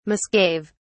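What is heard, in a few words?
"misgave", British English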